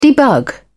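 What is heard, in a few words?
"debug", English, British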